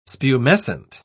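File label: "spumescent", English, British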